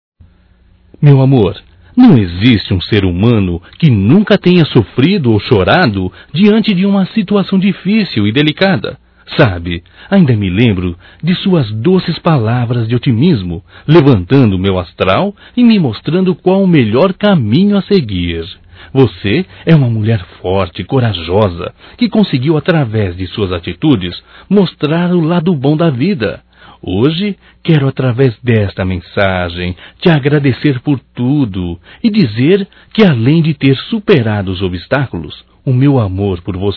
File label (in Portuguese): Romântico Masculino; Românticas